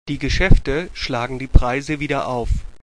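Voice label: "aufschlagen", German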